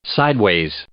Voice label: "sideways", English